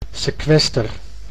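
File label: "sekwester", Dutch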